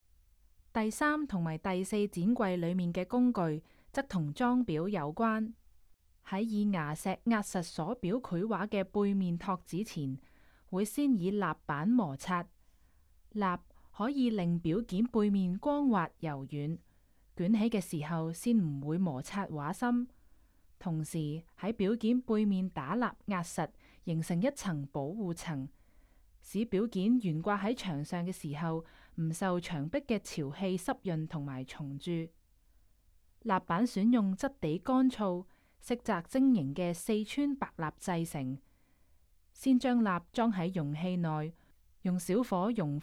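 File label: Cantonese, audio guide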